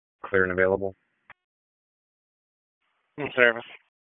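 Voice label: EMS Dispatch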